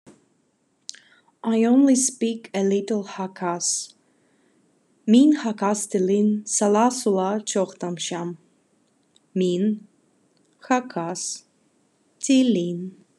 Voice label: Khakas I only speak a little Khakas